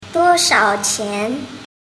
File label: "duoshaoqian", Mandarin